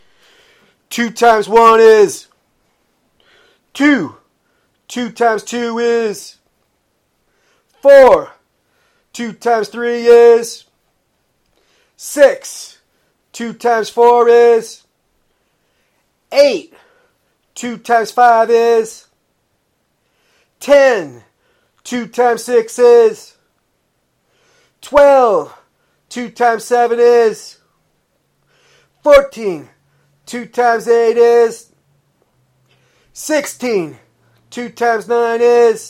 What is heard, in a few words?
2x2 pause chants